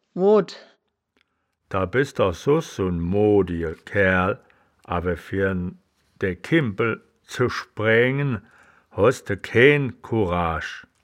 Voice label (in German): "mood", Wittlicher; das wittlicher woerterbuch; woerterbuch vertonung